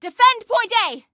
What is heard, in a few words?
DEFEND, Female